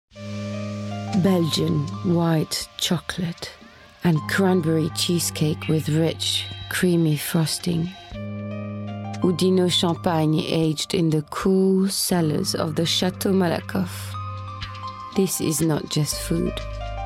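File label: French accented English; GoLocalise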